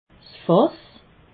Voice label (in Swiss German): "fass", alsace